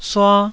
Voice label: "sua1", taiwanese Syllables

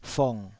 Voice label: "fong3", taiwanese syllable

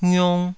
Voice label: "ngiung2", taiwanese syllables

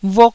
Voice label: "vuk1", taiwanese

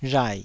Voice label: "rhai3", taiwanese

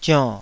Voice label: "zionn3", taiwanese

